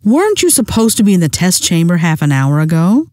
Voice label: test chamber, scientist female